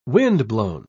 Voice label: "windblown", pronounce

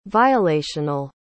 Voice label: "violational", pronounce

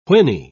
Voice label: "whinny", pronounce

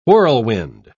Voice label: "whirlwind", pronounce

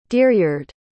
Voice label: "deeryard", pronounce

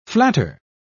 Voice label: "flatter", pronounce

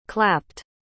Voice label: "clapped", pronounce

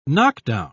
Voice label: "knockdown", pronounce

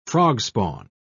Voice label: "frogspawn", pronounce

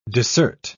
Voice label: "dissert", pronounce

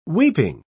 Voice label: "weeping", pronounce

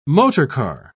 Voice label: "motorcar", pronounce

